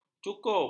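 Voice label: "cukup", Malay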